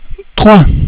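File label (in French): "trois", French; cat numeration doigts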